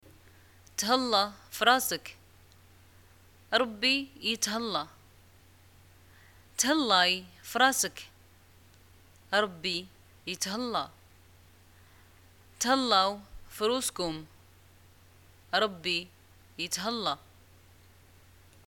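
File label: greeting farewell, Moroccan Arabic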